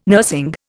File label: "nursing", Indian